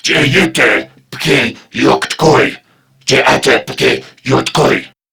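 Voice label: Patrol Crewmen, English; Dialog; Barks; Battle; Warframe; CorpusCrewmen; CrewmanA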